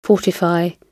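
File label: "fortify", British English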